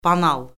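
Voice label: "banal", Azerbaijani; Orfoqrafiya Lüğəti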